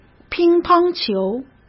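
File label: "乒乓球", Mandarin Chinese; chinese flashcards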